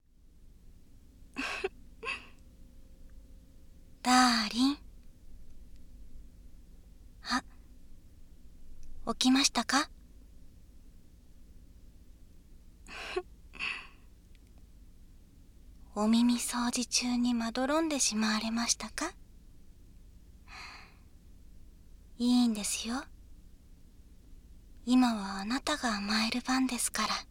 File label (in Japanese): 左 声のみ ノイズ除去なし